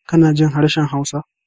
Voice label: do you speak, hausa

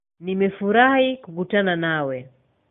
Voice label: meet, swahili

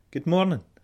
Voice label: good morning scots

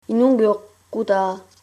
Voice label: hello, greenlandic

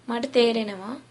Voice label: understand, sinhala

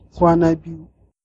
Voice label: long time no see, hausa

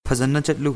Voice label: bon voyage, manipuri

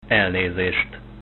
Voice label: excuse me, Hungarian

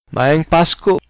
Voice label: christmas, cebuano

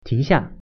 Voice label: "stop", Mandarin

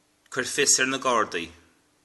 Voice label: police, Irish

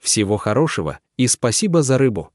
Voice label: "so long", Russian

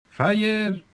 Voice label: fire, yiddish

